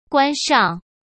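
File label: "关上", Mandarin Chinese; hsk; chinese-flashcards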